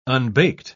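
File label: "unbaked", British English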